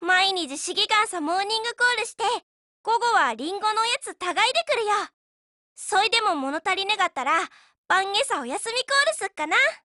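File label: DIALOGUE, Japanese; Type82S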